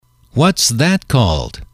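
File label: what is that called, male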